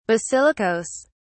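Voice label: "basilicas", British English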